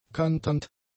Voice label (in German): "kanternd", German; adjectives